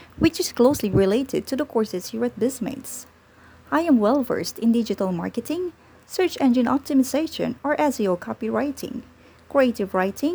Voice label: trainer voice